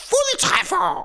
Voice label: "Amazing", Danish; Worms Armageddon; Danish-Pyrus